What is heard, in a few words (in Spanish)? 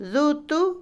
tela, Otomí, mujer; Ropa; INALI